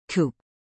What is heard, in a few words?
"coupe", English